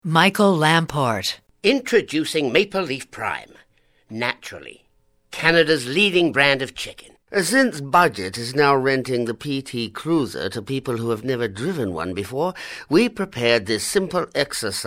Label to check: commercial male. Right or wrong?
Right